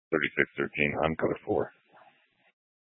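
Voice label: Police Dispatch, Grand Junction, Mesa County, Colorado; Police 1 Dispatch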